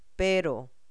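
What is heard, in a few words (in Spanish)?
"pero", Spanish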